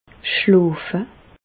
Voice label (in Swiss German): "schlofe", Alsace, Bas Rhin; medecine; dormir